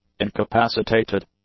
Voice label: "incapacitated", English; adjectives

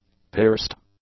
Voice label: "pierced", english; adjectives

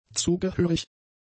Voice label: "zugehörig", German; adjectives

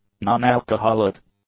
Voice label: "nonalcoholic", english; adjectives

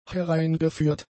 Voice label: "hereingeführt", German; adjectives

